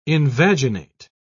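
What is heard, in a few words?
"invaginate", English, uk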